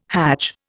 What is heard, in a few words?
"hadj", Indian